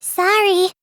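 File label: "sorry", English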